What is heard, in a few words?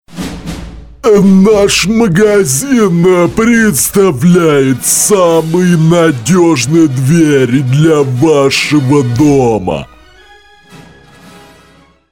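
perevodchik filmov, film translator voice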